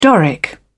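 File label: "doric", British English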